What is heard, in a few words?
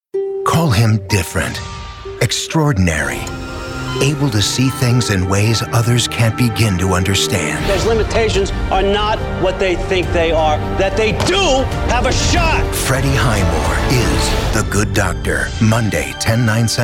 TV Promo